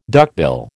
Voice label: "duckbill", American

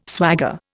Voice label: "swagger", Indian

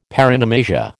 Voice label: "paronomasia", American

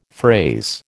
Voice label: "phrase", American

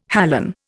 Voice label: "halon", Indian

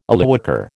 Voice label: "Illywhacker", American

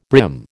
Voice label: "brim", American

